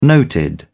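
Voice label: "noted", British English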